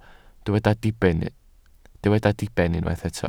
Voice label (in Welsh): "diben", Welsh